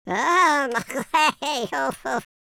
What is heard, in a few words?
pray, Welsh; speech